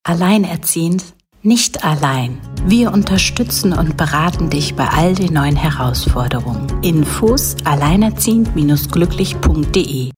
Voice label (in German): Funkspot; Alleinerziehend und Gluecklich